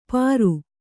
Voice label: "ಪರು", Kannada

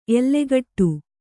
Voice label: "ಎಲ್ಲೆಗೆಡು", Kannada

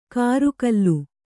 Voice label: "ಕರುಗಲ್ಲು", Kannada

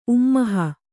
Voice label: "ಉಮ್ಮೋಹ", Kannada

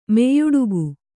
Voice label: "ಮೆಯ್ಯುಡುಗು", kannada

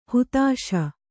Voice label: "ಹತ್ತಿಸು", Kannada

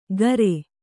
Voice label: "ಕಾರೆ", Kannada

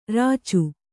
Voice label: "ರಚ್ಚೆ", Kannada

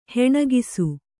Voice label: "ಹೊಣಕಿಸು", Kannada

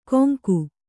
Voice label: "ಕೊಂಗು", Kannada

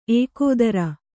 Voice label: "ಏಕೋದರ", Kannada

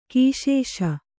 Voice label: "ಘೈಸಾಸ", kannada

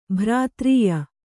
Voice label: "ಭ್ರಾತ್ರೀಯ", kannada

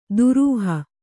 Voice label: "ದುರೂಹ", Kannada

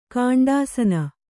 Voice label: "ಗುಂಡಾಸಿನಿ", Kannada

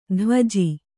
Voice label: "ದ್ವಿಜ", kannada

